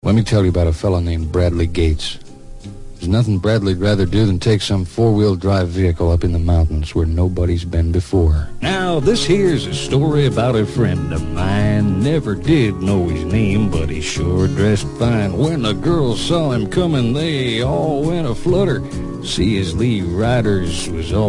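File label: Male Talent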